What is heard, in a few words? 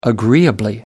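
"agreeably", British English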